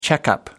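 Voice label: "checkup", British English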